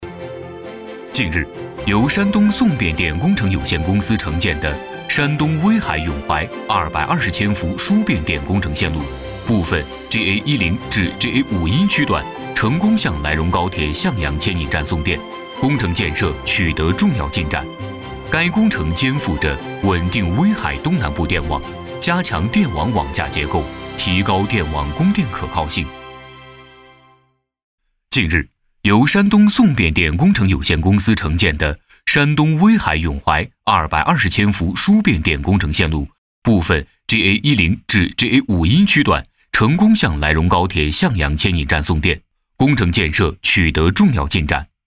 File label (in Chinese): 男 新闻感; 威海永怀220千伏输变电工程